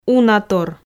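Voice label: "унатор", Komi, female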